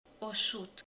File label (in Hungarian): "ecet", romani